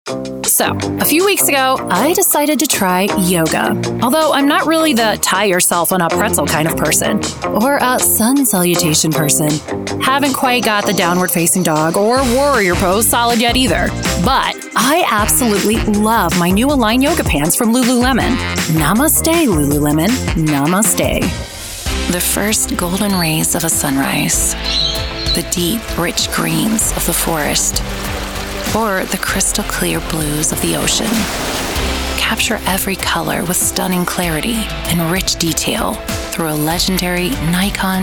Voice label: Commercial